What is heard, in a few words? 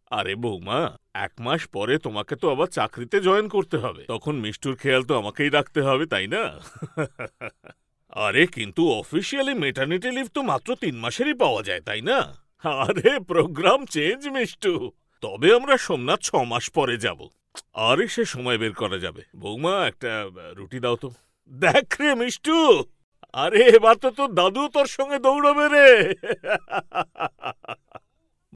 Character Voice